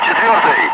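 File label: Russian loud; Ka-50; Wingman; DCS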